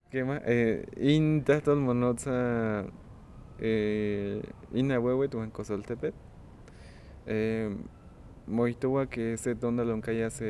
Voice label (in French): conte en nahuatl